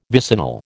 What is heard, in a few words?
"vicinal", American